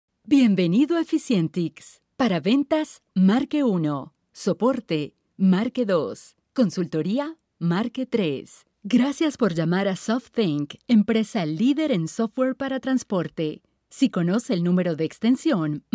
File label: spanish telephone